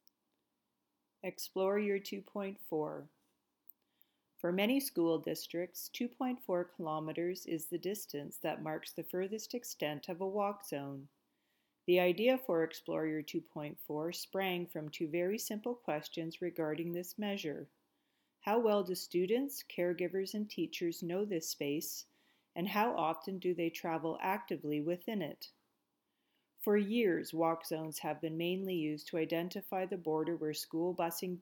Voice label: voice over